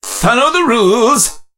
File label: meeple hurt